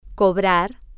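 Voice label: "cobrar", Spanish, female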